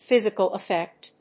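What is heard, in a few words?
"physical effect", English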